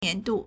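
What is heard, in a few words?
"nian2du4", Mandarin Chinese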